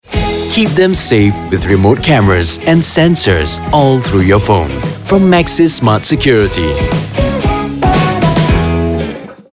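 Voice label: Eng Announcer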